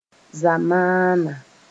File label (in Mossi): "zamaana", moore